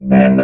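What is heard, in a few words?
"been", robot voice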